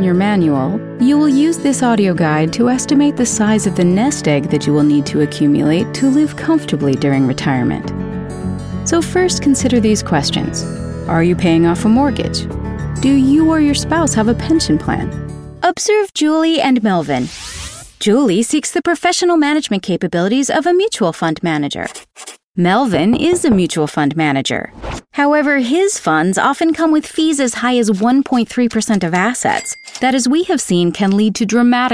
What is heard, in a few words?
Financial Narration